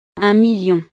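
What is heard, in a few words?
"un million", French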